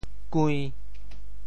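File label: "kui~5", Teochew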